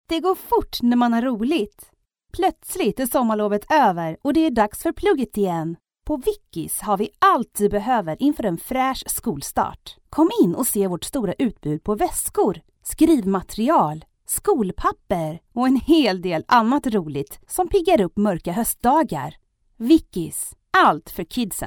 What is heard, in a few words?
swedish female young commercial